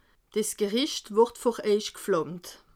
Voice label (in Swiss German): "geflammt", alsace